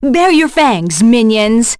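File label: Vox Skill, voices heroes English; Seria; kings raid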